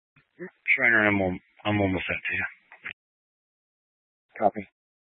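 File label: Police Dispatch, Scanner audio recording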